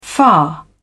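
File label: "far", British English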